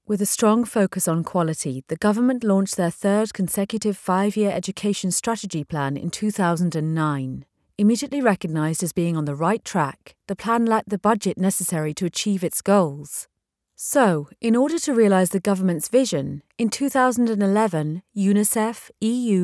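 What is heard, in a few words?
British Scottish narration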